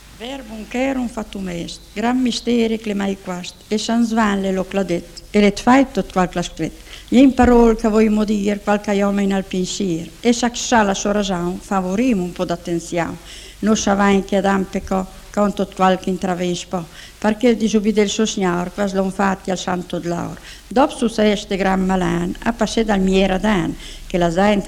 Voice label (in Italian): orazione; verbum cherum fattum est